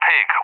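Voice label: "Pig", English; UK; CallSign; DCS; Allied Flight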